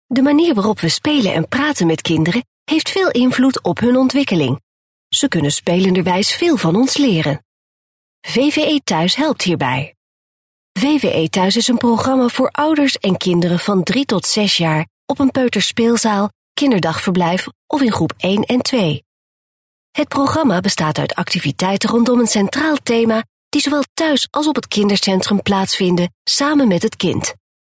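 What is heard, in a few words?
Dutch, female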